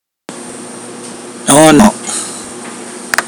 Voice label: "mother", Pohnpeian